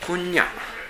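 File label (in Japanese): "konnya", 南山形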